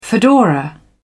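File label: "fedora", British English, dictionary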